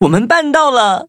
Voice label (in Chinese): victory, zh-hant; Cookie Run